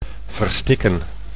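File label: "verstikken", Dutch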